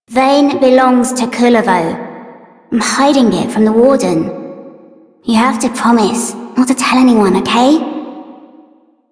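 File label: Child Female; Warframe; Duviri; Sword Girl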